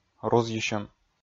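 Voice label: "рузйысьӧм", Komi, male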